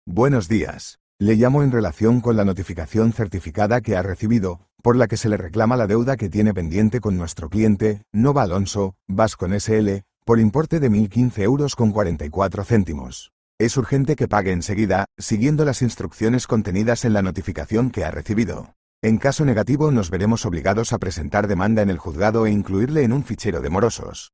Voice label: audio call